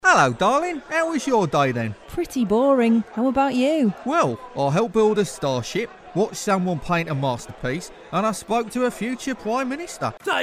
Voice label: male british voice over character, english british